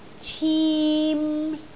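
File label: "cim3", Cantonese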